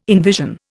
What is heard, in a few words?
"envision", Indian